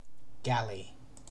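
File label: "galley", British English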